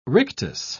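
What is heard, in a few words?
"rictus", British English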